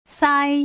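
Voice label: "sai", Mandarin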